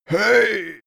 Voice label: male old hey

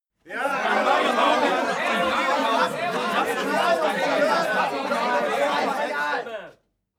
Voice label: talk upset loud short; atmosphaeren umwelt; demonstration

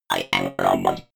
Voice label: robot voice i am robot fx

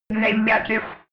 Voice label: robot voice negative fx

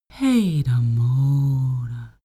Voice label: female talk elfish

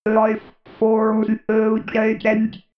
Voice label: robot voice lifeforms located

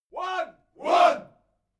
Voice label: military shout english "one"

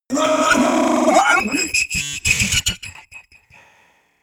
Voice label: crazy talk; audio-branding; unheimlich

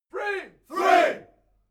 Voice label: military shout english "three"

